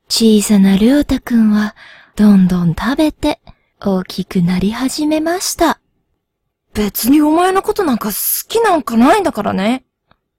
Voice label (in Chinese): 日语女 角色模仿